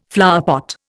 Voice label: "flowerpot", Indian